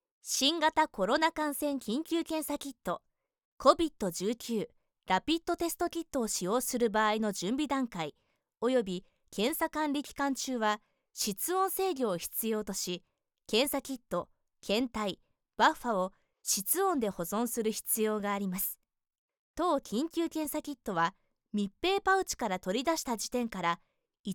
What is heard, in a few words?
japanese narration; Young and versatile! Great with characters.